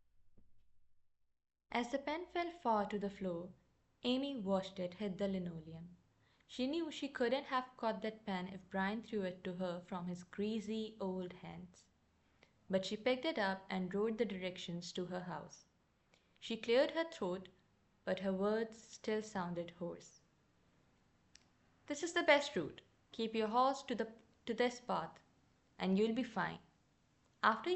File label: English reading; Audio Recording of Spoken English